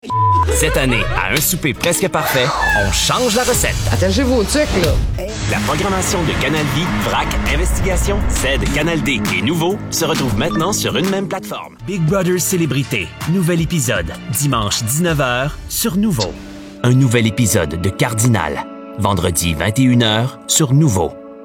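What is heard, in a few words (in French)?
Voix officielle; NOOVO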